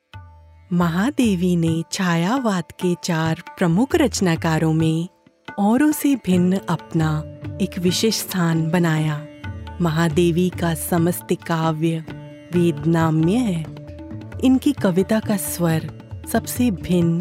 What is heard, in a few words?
Hindi Narrative; Mahadevi